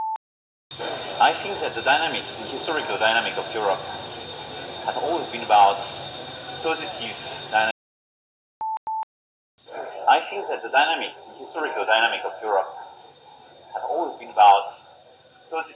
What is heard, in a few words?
voice dsp plus noise suppression before after